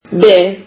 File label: turkish alphabet b